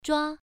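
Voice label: "zhua1", Chinese pronunciation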